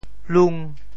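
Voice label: "lung5", Teochew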